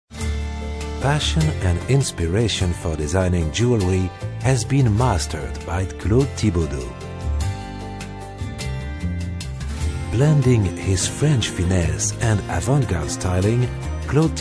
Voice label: ENG COMM